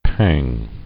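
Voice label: "pang", English; noun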